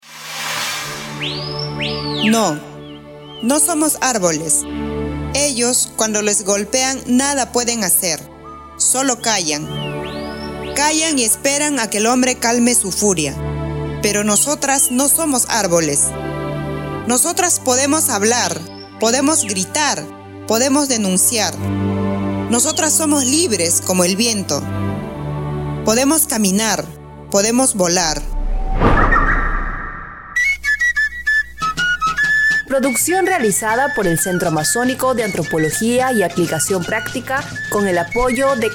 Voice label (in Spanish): SPOTS ESPANOL